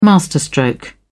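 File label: "masterstroke", British English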